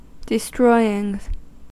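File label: "destroying", US English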